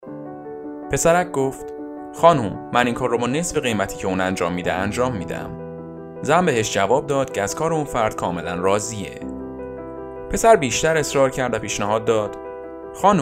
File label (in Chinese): 波斯语男 外语 小语种 亲切